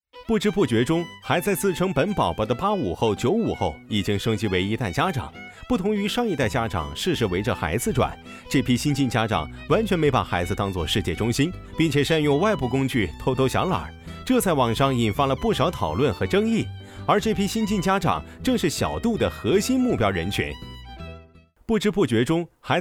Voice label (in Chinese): 男,MG